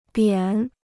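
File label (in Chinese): "扁", Chinese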